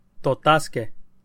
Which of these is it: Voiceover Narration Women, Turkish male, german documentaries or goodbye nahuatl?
goodbye nahuatl